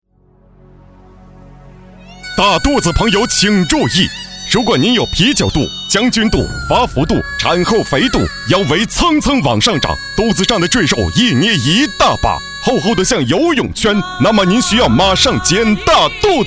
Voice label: 男 广告 促销; 大肚灸促销

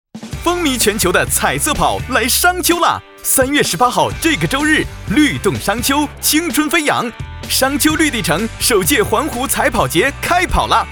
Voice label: 男 飞碟说 彩跑解说

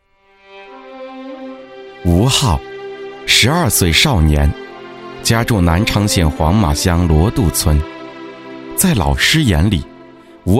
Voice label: 男 专题 人物专题

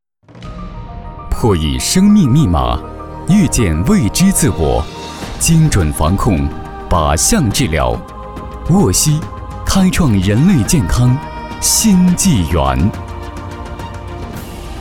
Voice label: 男 专题; 破译生命密码

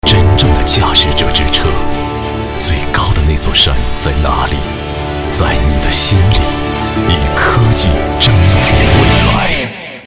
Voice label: 男 广告 高端品质广告; 凯迪拉克

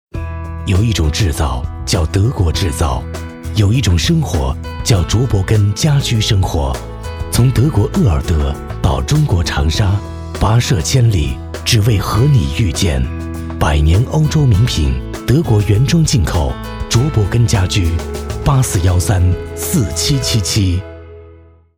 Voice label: 男 广告 家居; 卓伯根家居AD

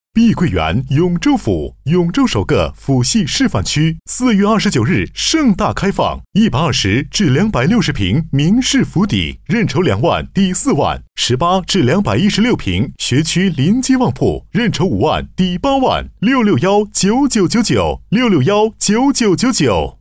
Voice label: 男 促销 房产

